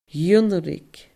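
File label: "ionnraic", Scottish Gaelic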